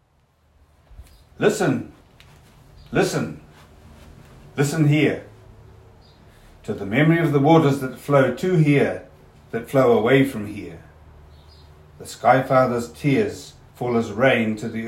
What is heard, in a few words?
ENGLISH